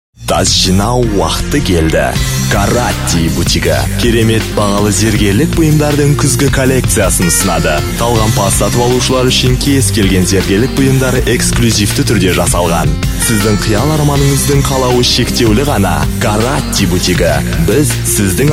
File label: kazakh male voiceover